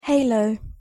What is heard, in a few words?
"halo", pronunciation en